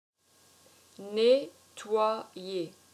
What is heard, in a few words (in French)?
"Nettoyer", french